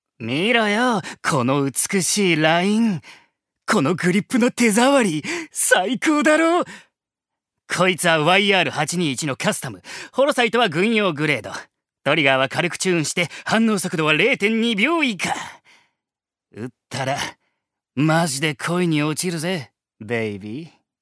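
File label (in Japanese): セリフ, 男性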